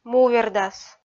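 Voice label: "мувердас" Komi, female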